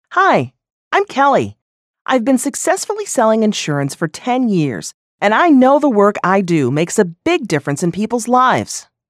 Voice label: Character, Voice Samples; NIIT